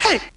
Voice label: "hey" nongendered; ooh ahh